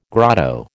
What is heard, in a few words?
"grotto", American